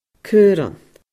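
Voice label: "caorann", Scottish Gaelic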